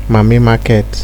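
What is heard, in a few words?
"mami market" Nigerian Pidgin, male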